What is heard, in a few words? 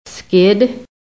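"skid", American English; PHONETIC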